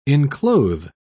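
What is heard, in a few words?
"enclothe", British English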